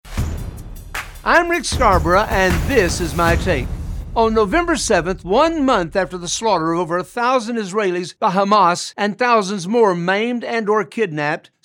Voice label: Radio Spot